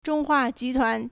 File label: "zhong1 hua2 ji2 tuan2", Chinese